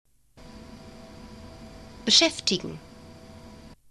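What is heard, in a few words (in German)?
"beschaeftigen", German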